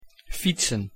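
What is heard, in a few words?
"fietsen", Dutch; język niderlandzki podstawowe zwroty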